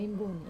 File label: "imboni", Wamesa; beetle